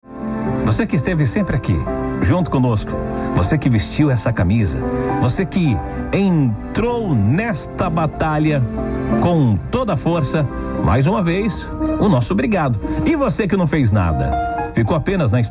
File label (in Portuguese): radio; feliz fm